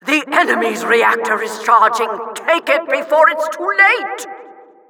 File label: Announcer captureflag